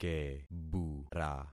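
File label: "kebura", concatenated syllables; Affixing experiment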